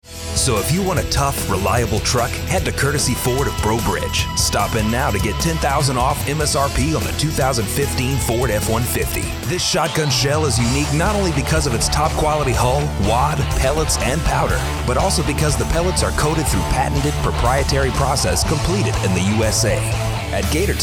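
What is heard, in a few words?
Commercial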